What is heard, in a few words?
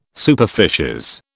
"superficies", British